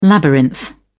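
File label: "labyrinth", British English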